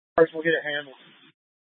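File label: Incident Tactical 1, Scanner audio recording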